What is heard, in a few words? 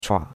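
"chua3", Chinese; Cours-de-Chinois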